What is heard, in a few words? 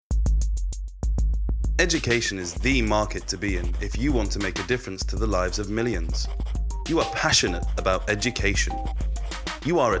INSTITUTIONAL, ENGLISH UK MALE